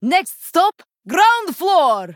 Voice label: female draenei, Play